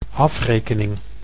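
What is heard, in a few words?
"afrekening", Dutch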